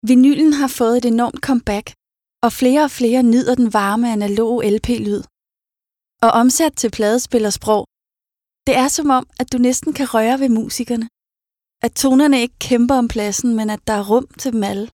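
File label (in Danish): Danish Female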